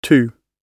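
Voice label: "too", British English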